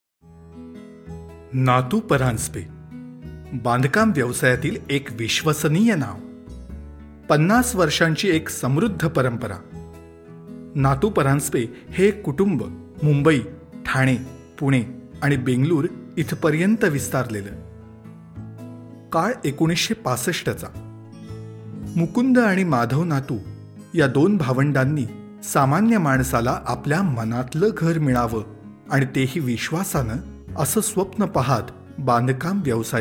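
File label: narration marathi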